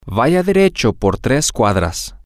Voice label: go straight ahead for three blocks, spanish, M